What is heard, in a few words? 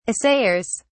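"assayers", British English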